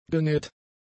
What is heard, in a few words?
"genäht", German; adjectives